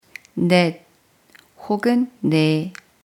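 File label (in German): "vier", German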